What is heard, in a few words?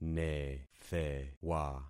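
"nethewa", concatenated syllables; Affixing experiment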